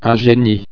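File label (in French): "genie", French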